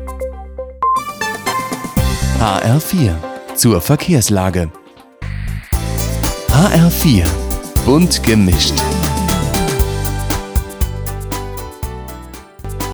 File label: radio jingle soft, german